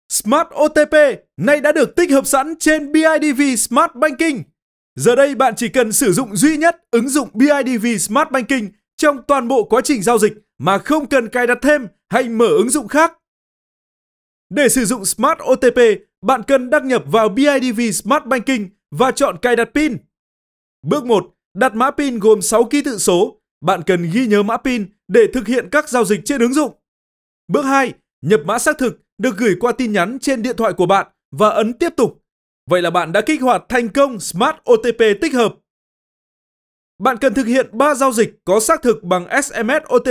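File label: Vietnamese North Male; BIDV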